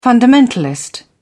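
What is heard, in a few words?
"fundamentalist", British English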